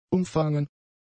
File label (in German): "umfangen", German; adjectives